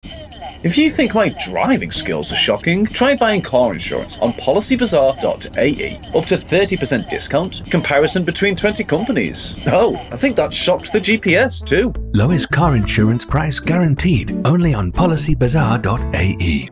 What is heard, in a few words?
ENG MVO, radio spot; PB Car spot